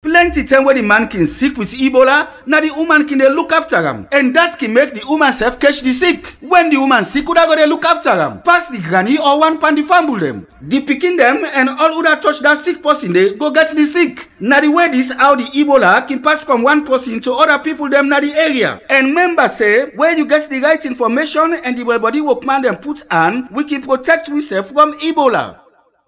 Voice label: Krio, radio spots; ebola

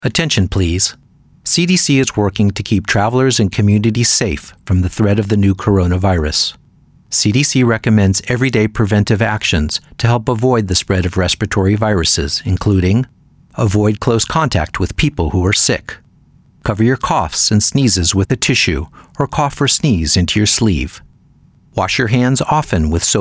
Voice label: Public Service Announcement USA ENG; coronavirus; 2019-ncov

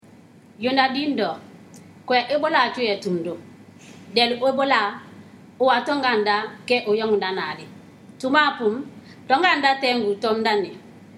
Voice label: kissi; ebola; radio-spots; vhf

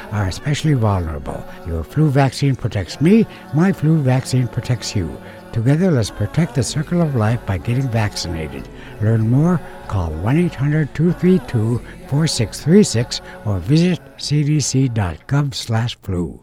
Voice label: yupik male elder; native and alaskan psas; flu

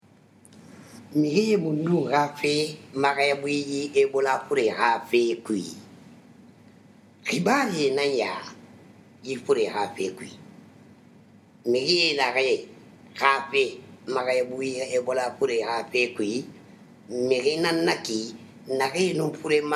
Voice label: sousou; ebola; radio-spots; vhf

